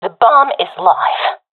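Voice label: bomb planted, professional female; vo; agents; Source Model Packs; Counter Strike Model Pack; CS2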